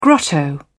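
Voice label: "grotto", British English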